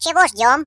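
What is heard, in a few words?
"Come on then", Russian; hedgewars; Default ru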